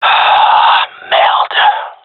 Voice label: gendermerie male; round lost; Counter Strike Model Pack; CS:GO

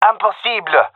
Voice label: disagree, gendermerie male

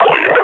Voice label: announce kill antlion, combine grunt; Half Life Model Pack

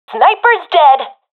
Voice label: sees sniper killed, professional fem; vo; Counter Strike Model Pack; CS:GO

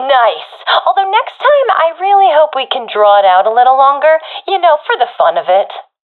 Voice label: round won quickly, professional fem; vo; Counter Strike Model Pack; CS:GO

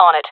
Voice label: affirmation, jungle fem; vo

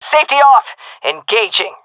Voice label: attacking, seal fem; Counter Strike Model Pack; CS:GO

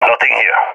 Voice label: sees area clear, balkan; balkan epic

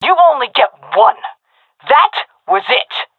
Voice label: taking fire friendly, seal fem; vo; Counter Strike Model Pack; CS:GO

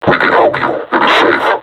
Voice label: taunt, vo combine suppressor; Half Life Alyx

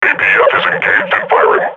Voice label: firing, combine grunt

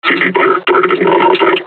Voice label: taunt, combine charger; Half Life Alyx

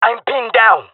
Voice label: pinned, male; player vo; Counter Strike Model Pack; CS:GO

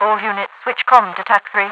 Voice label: switch com to tac, overwatch radio voice; Half Life 2

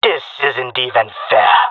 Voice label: round winning, male; player vo; Counter Strike Model Pack; CS:GO

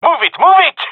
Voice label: request move, male; vo

